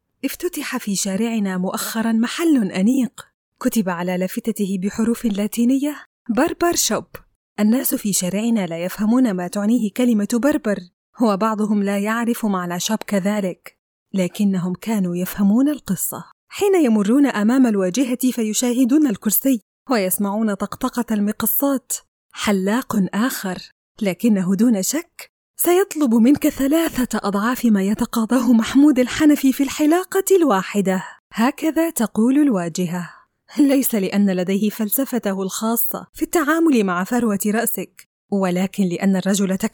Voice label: arabic audiobooks